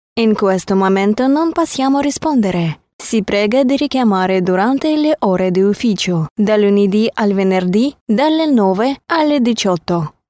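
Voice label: language Italian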